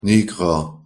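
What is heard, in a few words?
"nigrer", Luxembourgish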